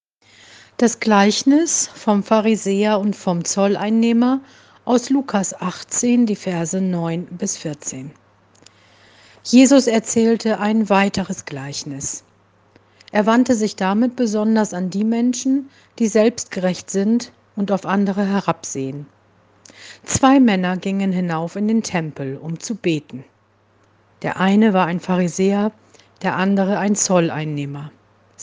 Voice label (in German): Lesung